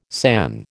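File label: "san", American English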